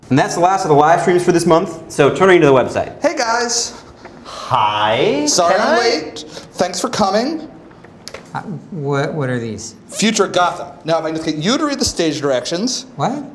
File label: Sound Devices 688 Dugan Automix